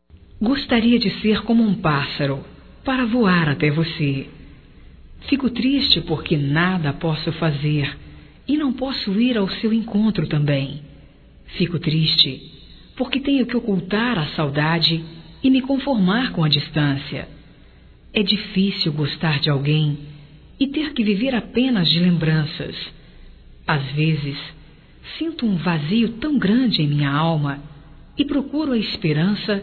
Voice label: ME DEIXA TE AMAR, Românticas, fem

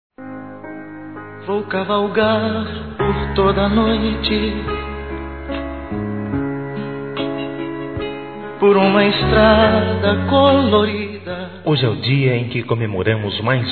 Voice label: Bodas de Prata Masculino, Telemensagens; Bodas e Casamento

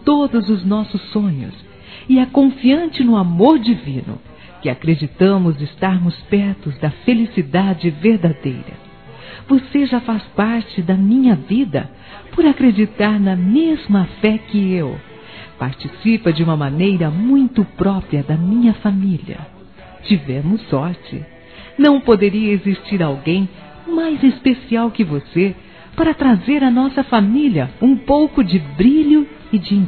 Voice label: ANIV CUNHADO (A) (F), Telemensagens Aniversários Evangelico Fem

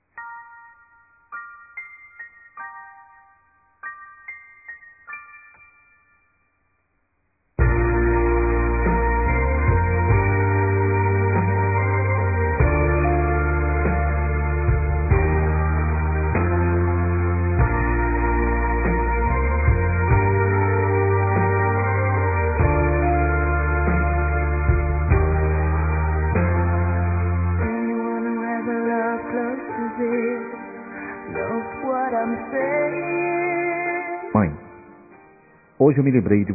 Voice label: Dia das Mães Neutro Masculino; Telemensagens 2017

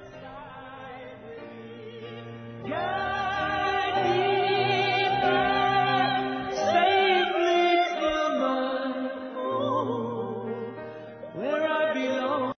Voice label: DESCULPAS AMIZADE M; Telemensagens 2017

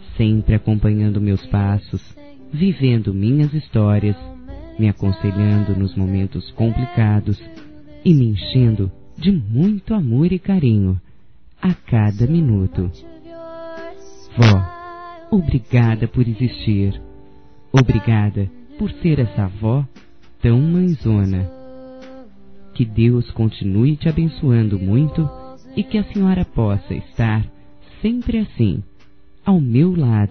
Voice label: Dia das Mães Para Avó Feminino; Telemensagens 2017

